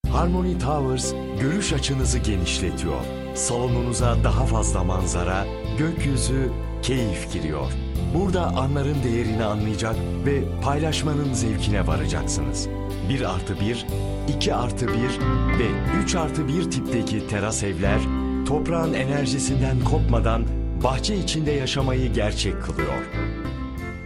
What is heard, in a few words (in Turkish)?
tanitim